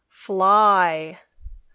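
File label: "fly", English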